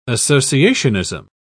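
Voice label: "associationism", British English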